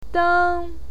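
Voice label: "deng", Mandarin Chinese, first tone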